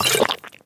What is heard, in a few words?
drink, witch; Minecraft; Votive World